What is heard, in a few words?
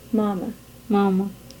Swahili m